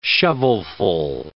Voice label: "shovelful", English